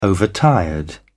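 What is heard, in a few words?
"overtired", English, British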